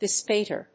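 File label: "bespatter", English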